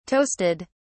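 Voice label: "toasted", British English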